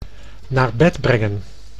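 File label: "naar bed brengen", Dutch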